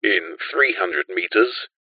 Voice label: technology sat nav voice male uk says in three hundred metres